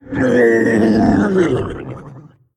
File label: male faceless death